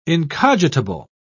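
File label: "incogitable", British English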